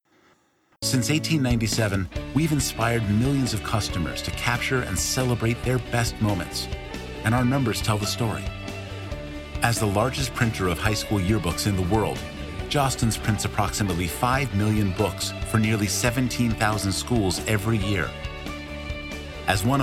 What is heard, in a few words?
voiceover